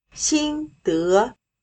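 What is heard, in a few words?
"心得", Chinese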